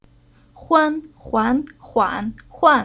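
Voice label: "Huan", Chinese syllable; Уроки фонетического блока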